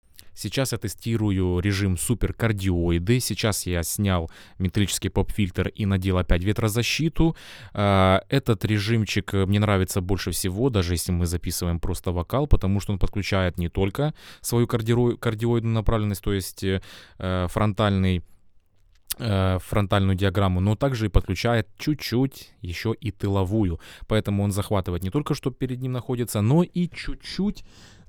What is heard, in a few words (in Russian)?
super kardioida; Lewitt LCT 640 TS; Супер кардиоида; Lewitt LCT 640 TS — это конденсаторный, широкомембранный, микрофон за 1000$